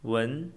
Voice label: "文", Chinese

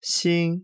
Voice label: "心", Chinese

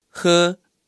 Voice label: "喝", Chinese

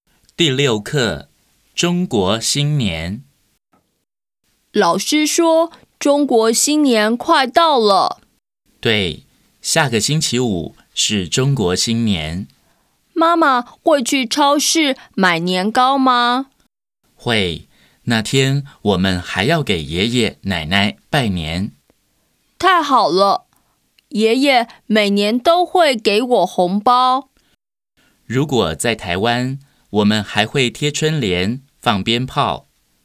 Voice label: Text Chinese

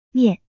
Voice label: "面", Chinese

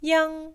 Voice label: "ㄧㄤ", Chinese

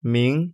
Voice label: "明", Chinese

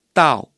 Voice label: "到", Chinese

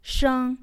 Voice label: "生", Chinese

